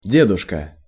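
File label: "deduska", Russian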